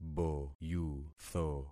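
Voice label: "boyutho", concatenated syllables; Affixing experiment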